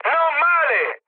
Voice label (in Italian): trick, mood high, speech it; War Thunder